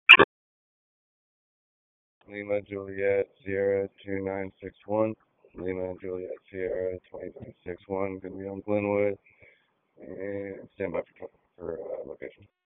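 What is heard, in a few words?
Police Southwest District Dispatch, p25; Raleigh; Wake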